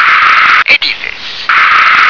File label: "edifice", English